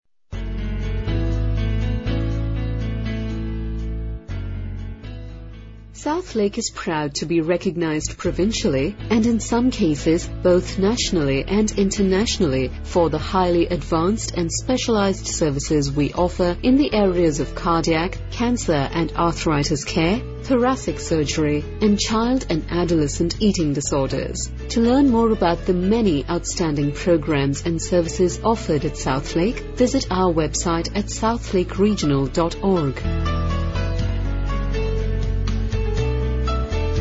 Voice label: Telephone Hold Message; Her unique indiscernible English accent with a hint of British